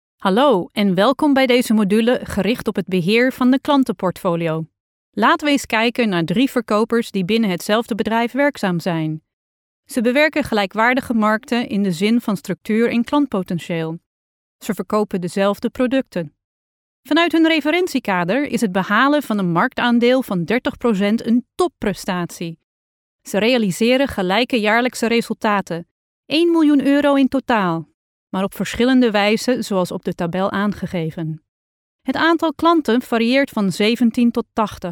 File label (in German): Nachvertonung Film Sprecherin Niederländisch